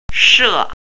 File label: "she4", pinyin